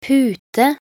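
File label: "pute", Norwegian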